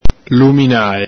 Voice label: "luminale", Venetian